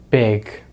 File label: "big", English; Consonants in American English; easypronunciation